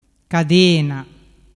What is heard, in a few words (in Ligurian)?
"cadena", dialettu